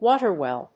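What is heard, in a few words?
"waterwheel", English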